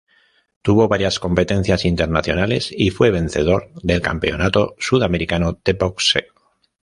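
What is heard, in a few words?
"competencias", Spanish; mozilla voice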